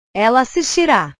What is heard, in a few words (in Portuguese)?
"ela assistira", portugues